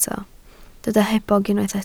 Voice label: "hebog", Welsh

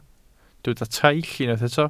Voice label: "traill", Welsh

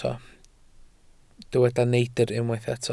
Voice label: "neidr", Welsh

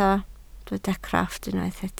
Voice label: "crofft", welsh

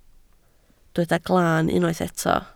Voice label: "glan", Welsh

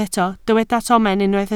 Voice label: "tomen", Welsh

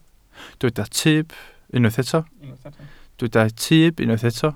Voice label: "tyb", Welsh

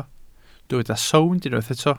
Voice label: "sownd", Welsh

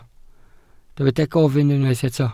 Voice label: "gofyn", Welsh

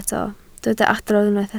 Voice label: "adrodd", Welsh

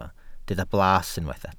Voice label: "blas", welsh

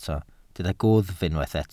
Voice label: "gwddf", Welsh

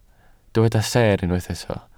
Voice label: "seer", Welsh

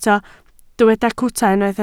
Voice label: "cwta", Welsh

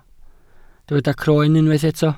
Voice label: "croen", welsh

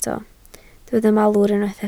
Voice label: "malwr", Welsh

